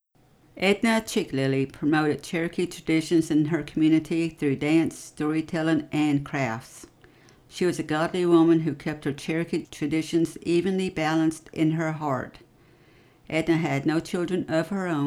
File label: english